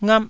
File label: "ngap1", taiwanese syllables